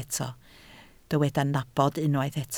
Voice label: "nabod", Welsh